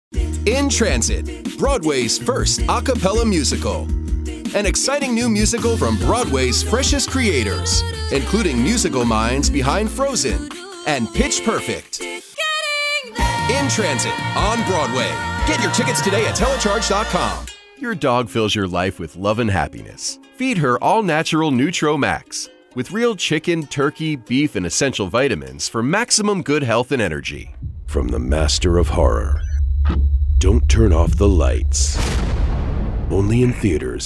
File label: Commercial Voice Over